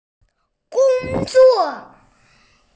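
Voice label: "work", hearing impaired; gong1zuo4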